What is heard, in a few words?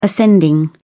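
"ascending", British English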